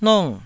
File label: "nong4", Taiwanese